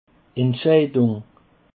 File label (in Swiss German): "entscheidung", Alsace